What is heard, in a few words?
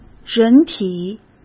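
"人体", Mandarin Chinese; chinese-flashcards; hsk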